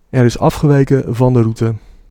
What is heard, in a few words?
Route Deviated, Dutch, male